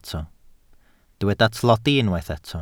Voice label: "tlodi", Welsh

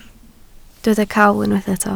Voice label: "cawl", Welsh

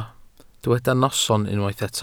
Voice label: "noson", Welsh

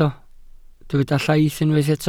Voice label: "llaith", Welsh

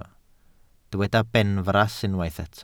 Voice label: "benfras", Welsh

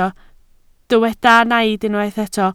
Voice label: "naid", Welsh

